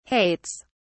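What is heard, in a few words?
"hates", English, uk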